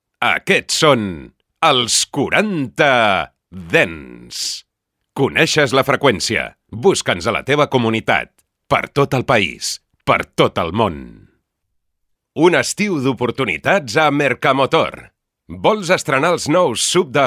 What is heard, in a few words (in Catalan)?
VEU CORPORATIVA Catala